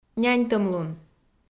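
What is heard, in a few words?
"няньтӧмлун", Komi, female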